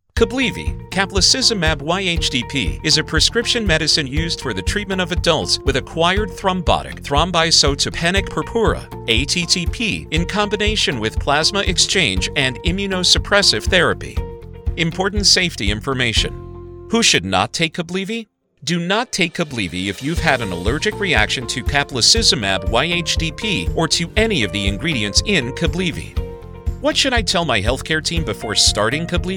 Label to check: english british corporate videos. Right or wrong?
Wrong